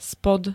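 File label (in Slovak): "spod", Slovak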